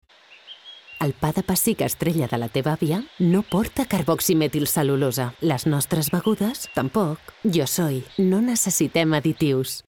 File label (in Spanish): SPOT SIMPATICA AMABLE